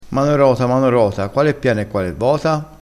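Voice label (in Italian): "mano rota", dialettale crespinese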